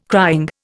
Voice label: "crying", Indian

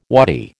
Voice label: "waddy", American

